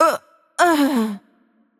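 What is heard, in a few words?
Greek death voice; bloom minigame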